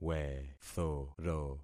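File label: "wethoro", concatenated syllables; Affixing experiment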